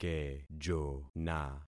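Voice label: "kejona", concatenated syllables; Affixing experiment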